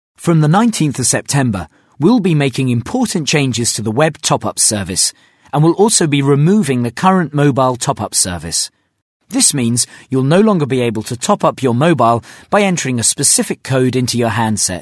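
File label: English IVR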